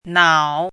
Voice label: "nao3", pinyin